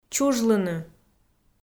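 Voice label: "чужлыны", Komi, female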